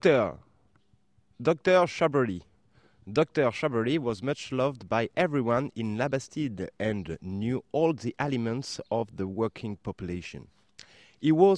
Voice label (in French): anglais